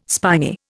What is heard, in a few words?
"spiny", Indian